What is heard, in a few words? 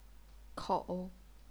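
"kou", Chinese, third tone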